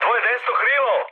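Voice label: Serbian right wing mood med; War Thunder; speech srb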